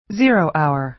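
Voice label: "zero hour", American English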